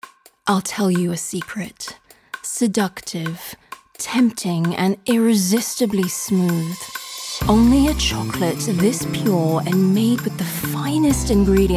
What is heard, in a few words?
UK; Chocol